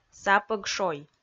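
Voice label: "сапӧгшой", Komi, female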